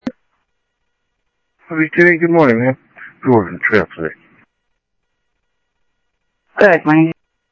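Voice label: Sheriff Lake Patrol, scanner audio; ThompsonPeakScot; MCSO Lakes 1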